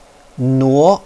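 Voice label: "nuo2", Chinese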